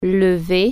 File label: get up, French